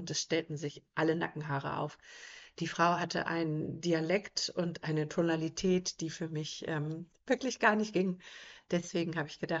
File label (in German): Stimmprobe, Meditation für mehr weibliche Energie